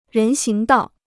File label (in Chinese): "人行道", Chinese